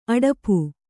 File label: "ಅಡಪು", kannada